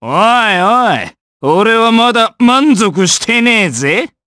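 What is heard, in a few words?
Vox Victory, Japanese; Bernheim; kingsraid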